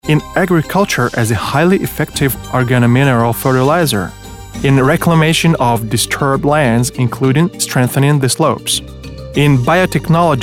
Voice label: English